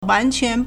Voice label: "wan2quan2", Chinese